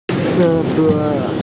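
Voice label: "ge1bo5", Mandarin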